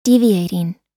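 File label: "deviating", American English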